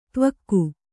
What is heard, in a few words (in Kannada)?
"ದಿವೌಕ", Kannada